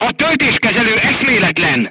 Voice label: voice message charger contused, crew tanks hungarian